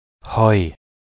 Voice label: "heu", de; tdeedu; klettres